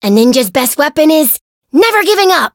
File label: chant